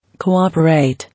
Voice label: "Cooperate", English